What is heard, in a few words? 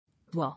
"War", English